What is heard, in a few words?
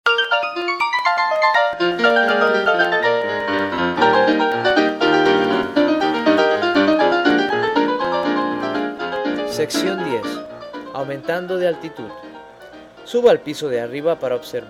Spanish Voice And Music; Podcasts